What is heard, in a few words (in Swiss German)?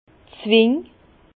"zwing", Bas Rhin; étreignoir